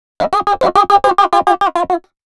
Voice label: female f105, adlips; Mutekki Media; Dance Ultra Voice VOL-1